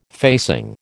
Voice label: "facing", American

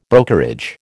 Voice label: "brokerage", American

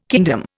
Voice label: "kingdom", Indian

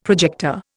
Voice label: "projector", Indian; Dictionary

